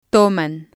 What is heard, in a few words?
"toman", Spanish